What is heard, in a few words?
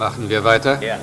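"Weiter", German; fun matrix cz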